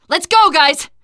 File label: hurry up, teengirl; player; survivor; voice